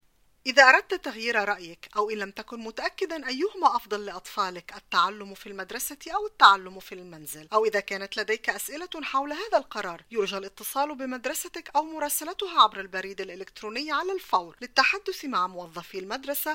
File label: Arabic